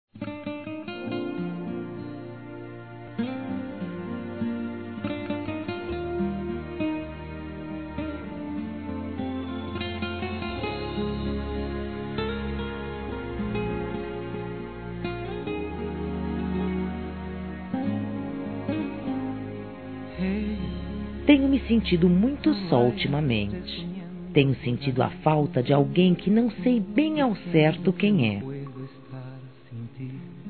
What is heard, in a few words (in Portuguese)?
Quer Arriscar Femin; Telemensagens; Conquistas Cantadas paqueras; Telemensagens 2017